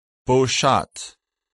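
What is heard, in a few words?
"bowshot", English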